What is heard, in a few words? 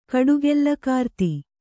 "ಕಡುಗೆಲ್ಲಕಾರ್ತಿ", Kannada